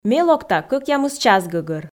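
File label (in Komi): ме локта 8 час гӧгӧр, Komi, female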